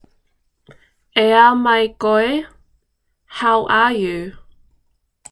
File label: Ea mai koe how are you, Tuvalu; 2022 Pacific Language Weeks